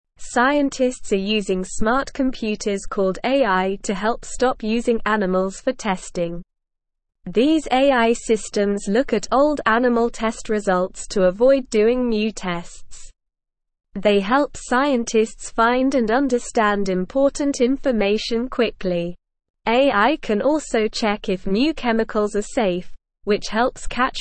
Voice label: English SLOW Reading; Smart Computers Help Scientists Stop Animal Testing

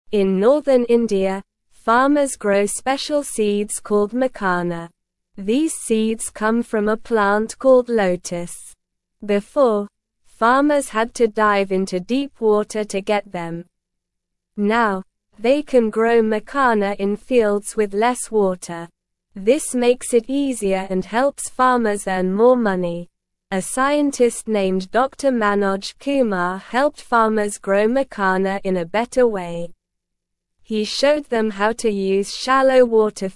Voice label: English Beginner SLOW Reading; Growing Makhana Seeds Helps Farmers Earn More Money

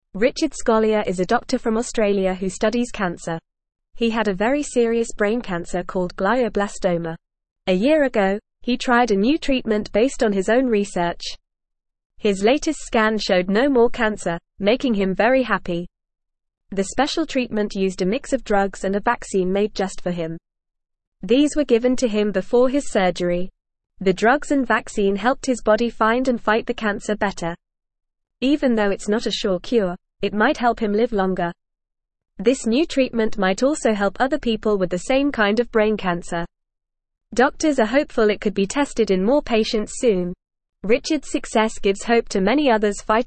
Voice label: English FAST Reading; New Treatment Helps Doctor Beat Brain Sickness

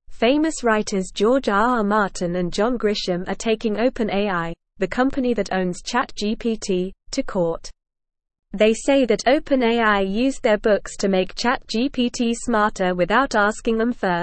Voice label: English Lower Intermediate NORMAL Reading; Famous Writers Upset with OpenAI for Using Their; English Newsroom

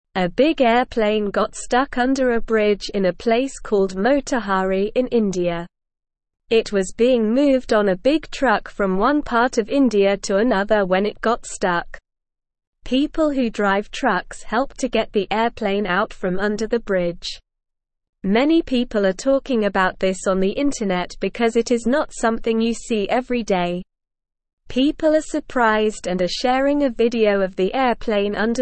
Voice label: English Lower Intermediate SLOW Reading; Airplane gets stuck under bridge people help; English Newsroom

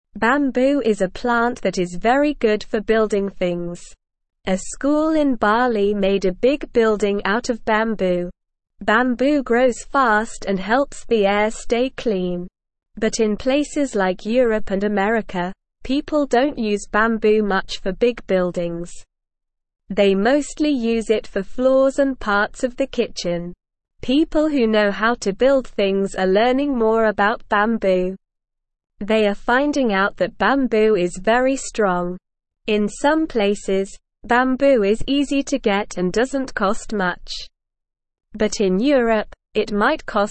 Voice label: English Beginner SLOW Reading; Bamboo A Strong and Eco Friendly Building Material; English Newsroom

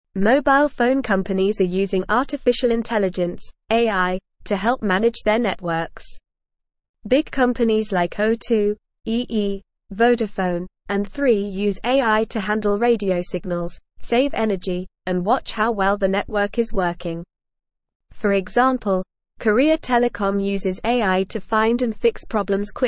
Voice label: English NORMAL Reading; Phone companies use smart computers to help netwo

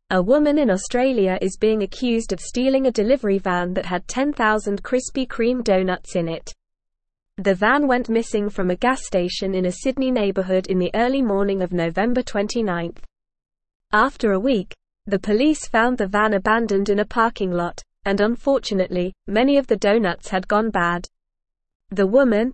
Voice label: English Upper Intermediate NORMAL Reading; English Newsroom; Woman Charged with Stealing Krispy Kreme Delivery

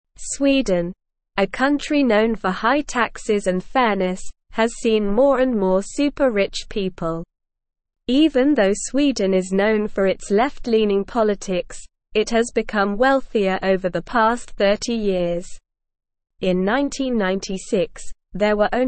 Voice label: English SLOW Reading

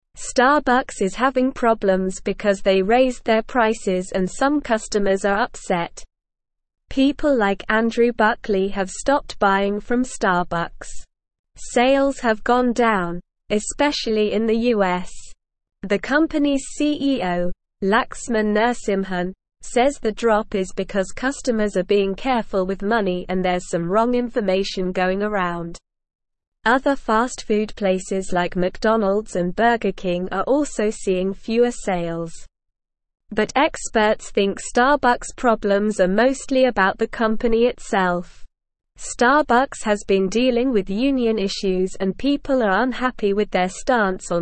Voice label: English SLOW Reading; Starbucks Faces Sales Drop and Customer Anger

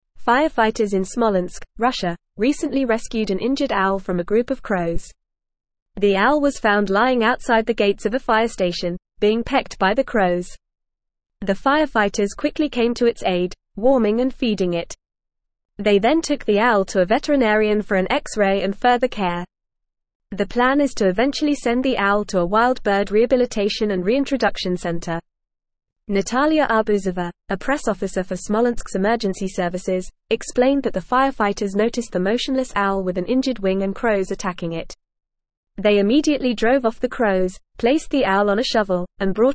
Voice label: English Advanced FAST Reading; English Newsroom; Firefighters Rescue Injured Owl Attacked by Crows

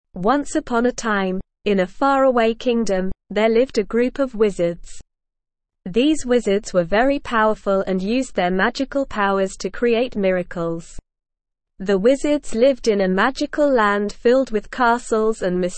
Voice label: ESL Short Stories for Kids SLOW reading; The Land of Wizards

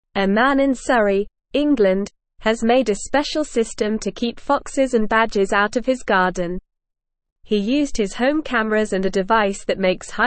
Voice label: English Lower Intermediate NORMAL Reading; Man Invents Furbinator 3000 to Keep Animals Away; English Newsroom

